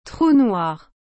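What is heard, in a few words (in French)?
"Trou noir", French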